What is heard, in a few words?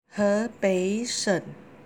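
"hebei", Chinese; 河北省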